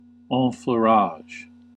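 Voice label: "enfleurage", US English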